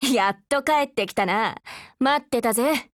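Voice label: HELLO, Japanese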